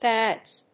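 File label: "ppads", English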